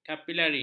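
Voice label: "kapilari", Malay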